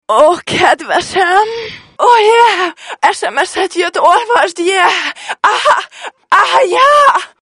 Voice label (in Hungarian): Kedvesem Erotikus SMS, csengőhang